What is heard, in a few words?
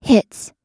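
"hits", American English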